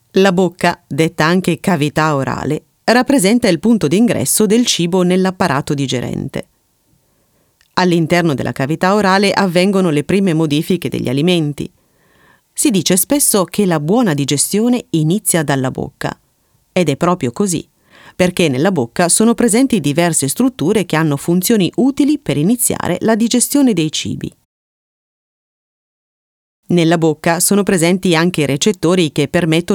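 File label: Italian, female, elearning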